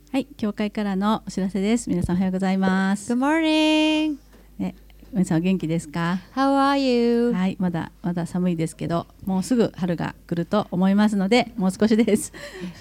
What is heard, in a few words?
announcement; sunday message 2018